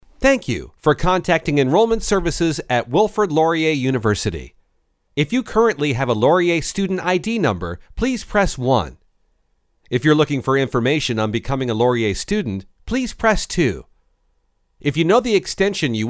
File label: Phone-Hold Male